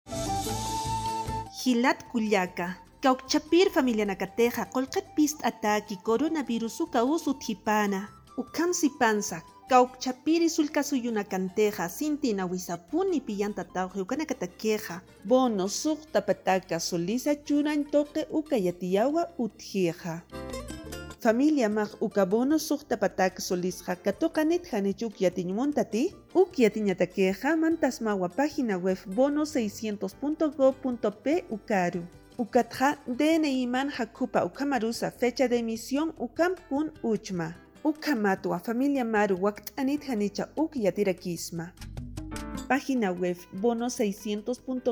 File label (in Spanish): aimara, spot de radio; Bono 600; precauciones al salir de mi comunidad